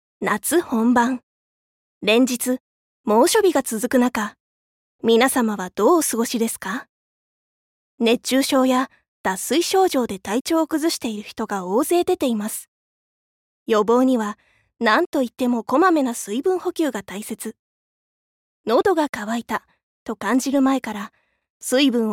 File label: Narration